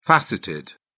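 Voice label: "faceted", British English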